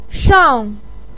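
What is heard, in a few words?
"shang", Chinese pinyin, fourth tone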